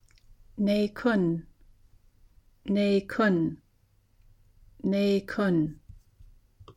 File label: naikoon park nee kun pronunciation